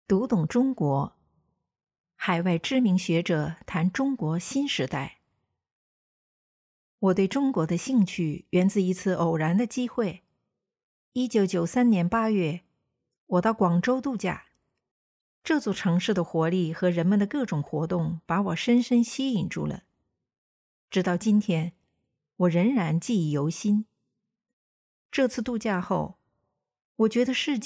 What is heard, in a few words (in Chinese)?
女播; 读懂中国海外知名学者谈中国新时代